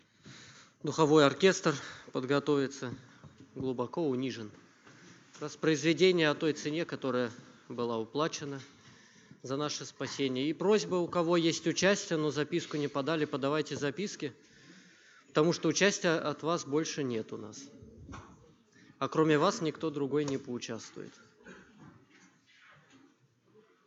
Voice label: Ведущий; Молодёжное общение г.Давлеканово

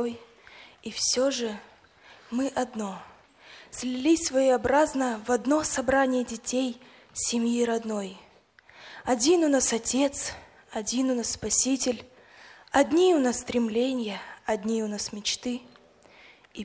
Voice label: дикламация Мы все из разных мест; 2016 Молодёжное общение, г.Курганинск

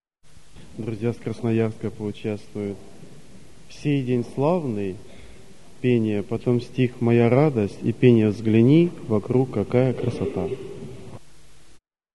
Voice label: Объявление; Красноярск; Молодежное Абакан июнь

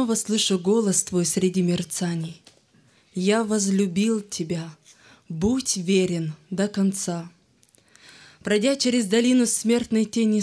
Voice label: стих Благодарю за время испытаний; Молодёжный лагерь, г. Апшеронск

